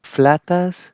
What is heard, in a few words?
"flataz", Proto-Germanic